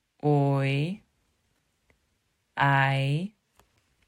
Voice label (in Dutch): "ooi" "aai", vergelijkende woorden; short a